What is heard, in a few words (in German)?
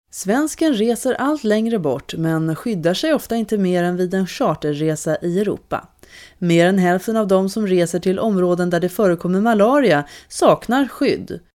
schwedisch, Telefonansagen, weiblich; Sprecherin